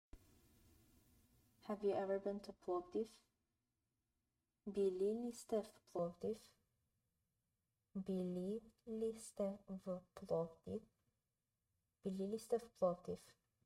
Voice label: Have you ever been to Plovdiv, bulgarian phrasebook; the talking bulgarian phrasebook